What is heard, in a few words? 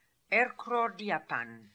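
"Erkrordiapan", pronunciations; Fourth Way; Beelzebub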